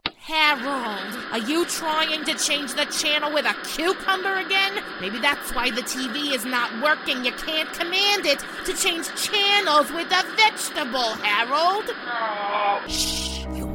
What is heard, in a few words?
Animation